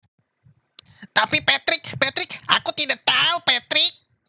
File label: Indonesia character